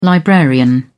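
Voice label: "librarian", British English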